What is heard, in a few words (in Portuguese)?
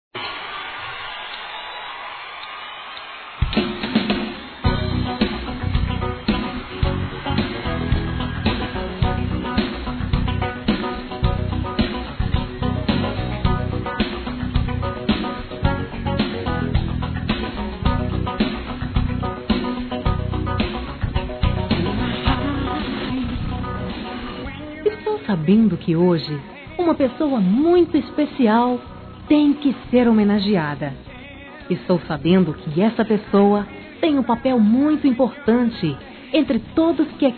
Homenagem Secretaria Feminino